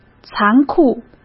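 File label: "残酷", Mandarin Chinese; hsk; chinese-flashcards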